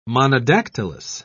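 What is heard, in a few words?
"monodactylous", English, British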